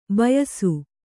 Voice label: "ಬೇಯಿಸು", kannada

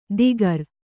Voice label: "ದಿಗರ", Kannada

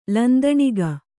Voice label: "ಲಂದಣಿಗ", Kannada

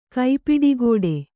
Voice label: "ಕೈಪಿಡಿಗೋಡೆ", Kannada

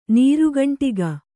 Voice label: "ನೀರುಗಂಟಿಗ", Kannada

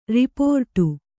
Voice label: "ರಿಪೋರ್ಟು", kannada

